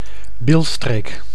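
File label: "bilstreek", Dutch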